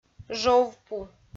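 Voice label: "жовпу" Komi, female; audio dict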